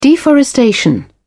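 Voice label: "deforestation", British English, dictionary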